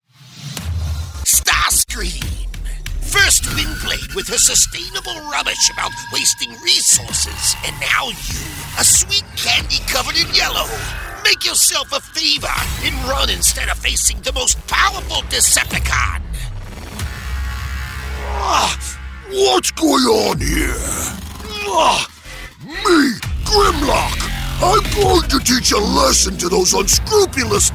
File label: Conversational male voice, Narration, Clear, Professional Narration Voice; Clear, Professional Narration Voice Ideal For Documentaries, Corporate Videos; Transformers